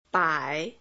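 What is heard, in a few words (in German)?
Phoneme, chinesisch; Silben; Chinesischen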